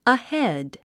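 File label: "ahead", English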